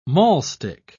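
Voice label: "maulstick", British English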